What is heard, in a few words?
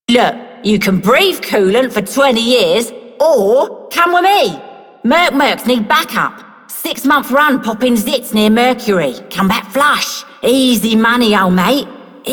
Female Scum, Female One, en; Dialog; Warframe; Solaris Venus